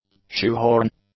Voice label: "shoehorn", English; nouns

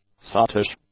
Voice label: "sottish", english; adjectives

